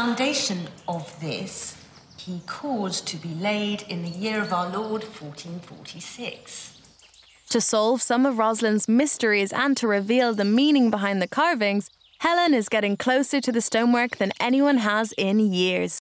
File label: Rosslyn Chapel Doc